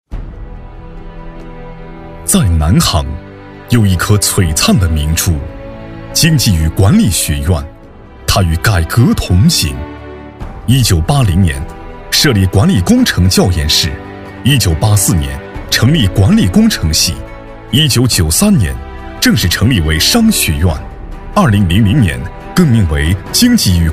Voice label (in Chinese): 男 专题; 南航经济与管理学院